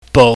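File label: "bou", Cantonese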